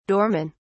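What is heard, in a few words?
"doormen", British English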